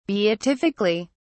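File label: "beatifically", British English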